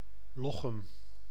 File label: Nl Lochem; لوخيم